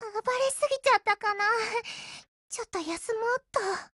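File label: BREAK, Japanese; GF Alarm; M500Mod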